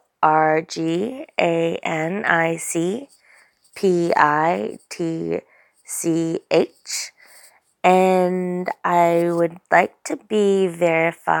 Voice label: [Verification] Female; Organic pitch

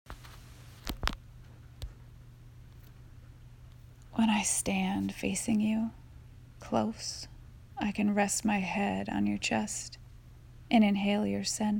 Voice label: Up Erotic Poetry

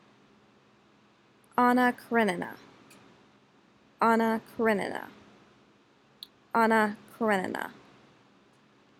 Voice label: Anna Karenina Pronunciation

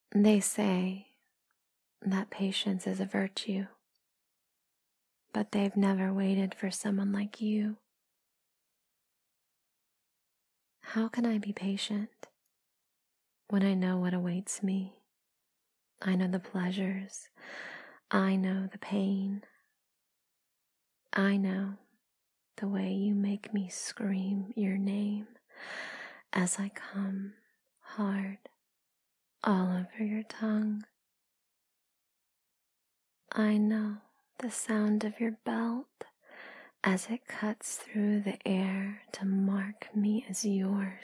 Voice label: [F4M] [erotic poetry]; fsub; oral; pain play; Good Little Kitten

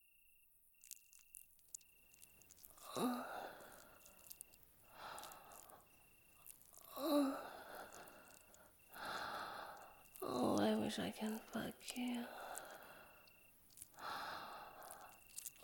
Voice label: Echoes; Hot Ghost Girl; Woken up at 3am by a Surprisingly Hot Ghost Girl

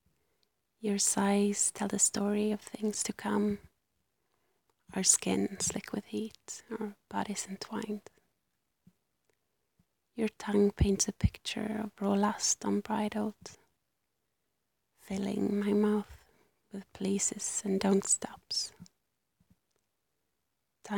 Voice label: Conversation, erotic poetry; F4A

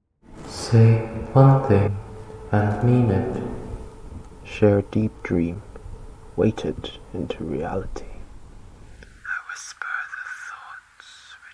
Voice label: poetry; say one thing and mean it

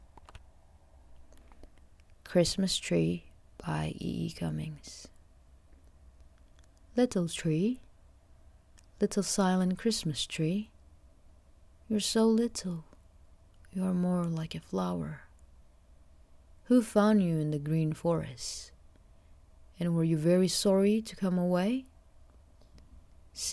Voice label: poetry; E. E. Cummings - Christmas Greetings

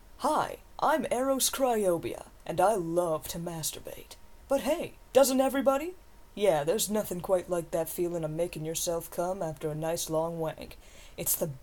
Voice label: Advertisement

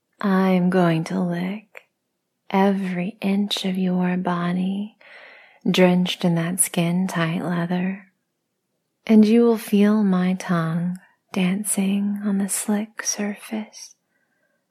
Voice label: F4F Erotic Poetry Licking Sensual; Leather Fetish; Catsuit

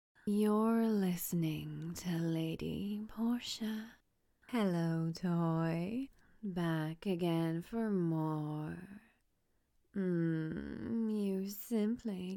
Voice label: Mantra, Hypnosis; Brainwashed Mindless Toy; F4A; No Wakener; Objectification; Erotic Hypnosis

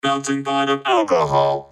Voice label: vocoded; 142 BPM

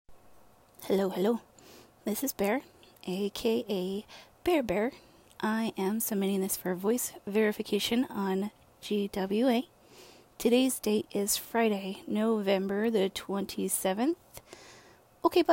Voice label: F, Voice Verification

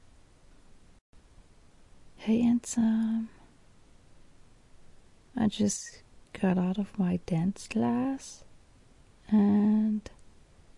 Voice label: Voicemail; GFE; Mini Audio

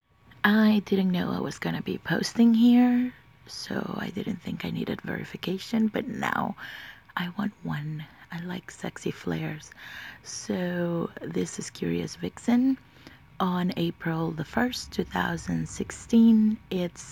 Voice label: F, Verification

